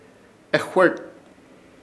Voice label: "Ejwert", Ishir Chamacoco; afuea